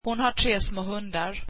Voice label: swedish she has three small dogs

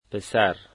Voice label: "boy", Dari

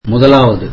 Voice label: tamil first

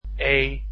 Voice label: "e", Dutch alphabet

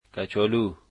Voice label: "potatoes", Dari

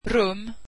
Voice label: swedish room

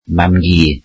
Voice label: welsh grandmother

